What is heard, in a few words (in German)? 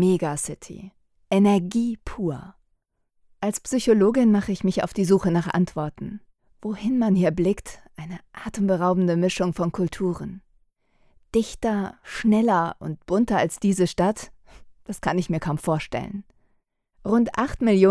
doku new york